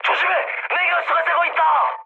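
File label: Korean damaged water mood high, speech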